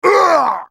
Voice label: dying, en US; Talon